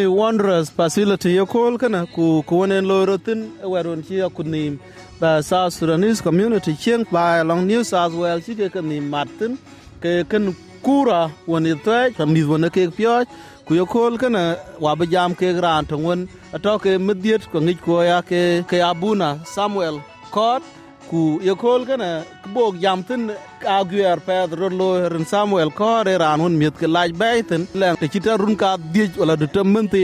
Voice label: dinka, podcast; SBS Dinka

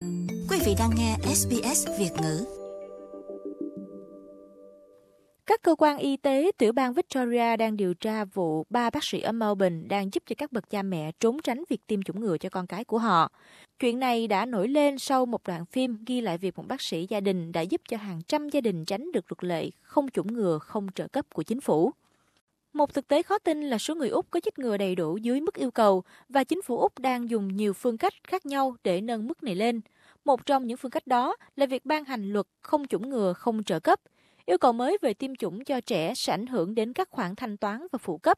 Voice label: vietnamese; SBS Vietnamese; Điều tra việc trốn tránh chương trình chích ngừa cho trẻ em của Chính phủ Úc

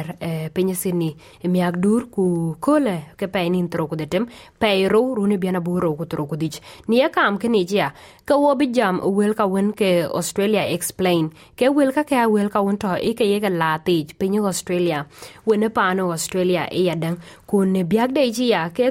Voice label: dinka, podcast; SBS Dinka

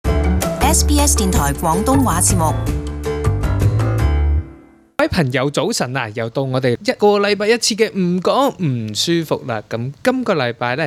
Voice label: cantonese; SBS廣東話節目; 【唔講唔舒服】 介紹台灣「植物獵人」洪信介

